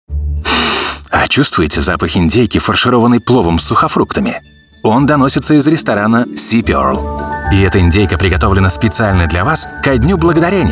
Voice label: russian commercial